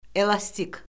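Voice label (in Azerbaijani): "elastik", Azerbaijani; Orfoqrafiya Lüğəti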